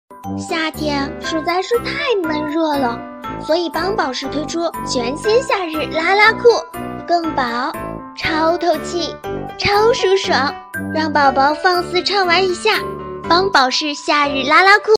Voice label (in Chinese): 广告童声; 帮宝适